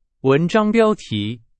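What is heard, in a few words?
Chinese; hsk3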